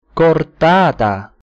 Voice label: "chordata", latin